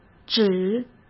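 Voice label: "纸", Mandarin Chinese; chinese flashcards; hsk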